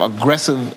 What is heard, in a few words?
LoFi Words, LoFi Vocals